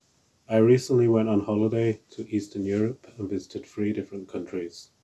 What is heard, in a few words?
noisy reference